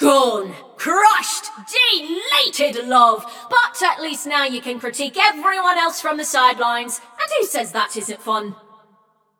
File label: Scrap Run Inform Runner Eliminated, Announcer